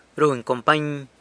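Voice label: "runy company", San Lucas Quiaviní Zapotec; accompanies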